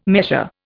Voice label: "measure", Indian